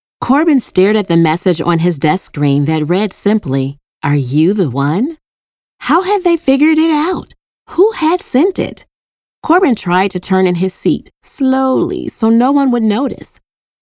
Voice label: YA Audiobook Sci Fi 3rd Person Dialogue